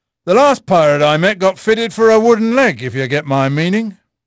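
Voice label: English male; Pirates Of The Caribbean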